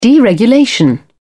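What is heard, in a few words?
"deregulation", English, British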